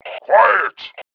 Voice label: QUIET; valve; ALIEN3